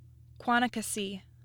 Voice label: "Quanicassee", Michigan